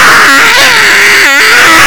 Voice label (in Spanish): ocho enfant; Sonidos raros